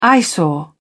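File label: "eyesore", British English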